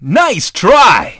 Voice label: dj goal; DJ VOICE; Nintendo; July 2020 Gigaleak